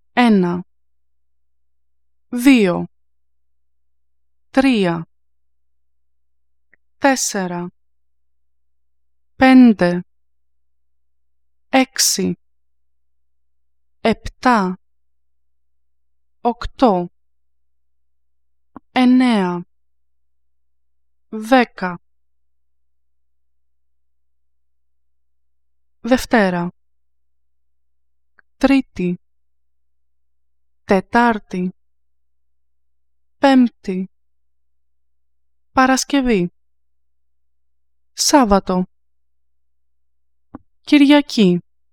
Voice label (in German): Griechisch